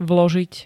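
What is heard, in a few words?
"vložiť", Slovak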